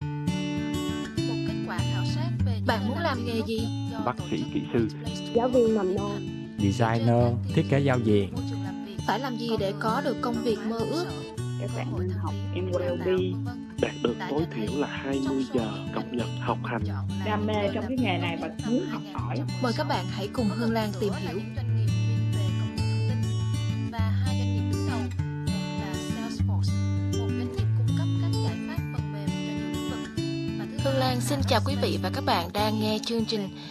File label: vietnamese; SBS Vietnamese; Bạn muốn làm nghề gì (7) Kỹ sư phần mềm